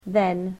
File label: "Then", English